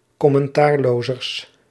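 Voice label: "commentaarlozers", Dutch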